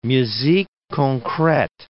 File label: "musique concrete", English